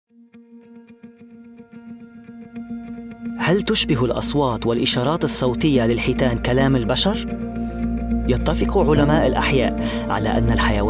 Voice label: male arabic and english usa classical standard arabic documentary